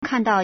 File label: "kan4dao4", Chinese